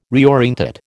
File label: "reorientate", American